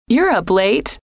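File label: you Re Up Late, female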